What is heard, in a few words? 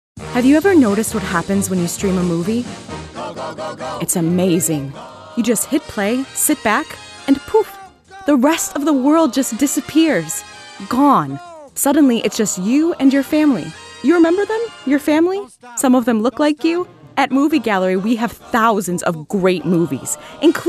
Commercial Reel